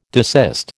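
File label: "desist", American English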